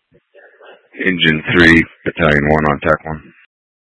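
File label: Incident Tac 1, Scanner audio recording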